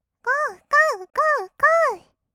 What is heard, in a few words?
gogo, kawaii voice; ASMR; 合いの手; 1200 kawaii voice!!